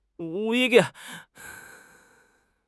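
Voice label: "duan4", Chinese; HSK3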